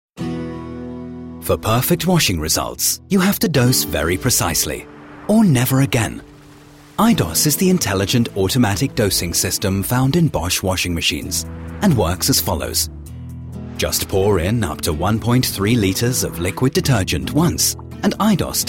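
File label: British English, Neutral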